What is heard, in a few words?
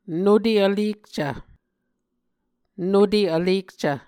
"nute vlekcv", Muscogee